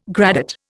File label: "gradate", Indian